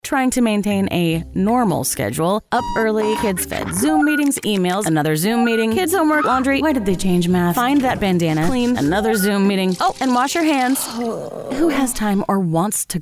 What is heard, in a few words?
COMMERCIAL